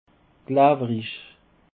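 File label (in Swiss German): "glewerich", Alsatian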